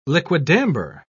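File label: "liquidambar", British English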